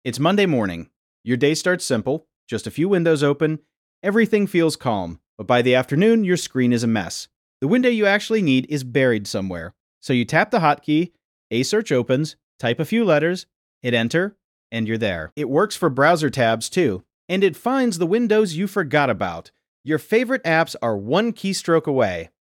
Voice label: narration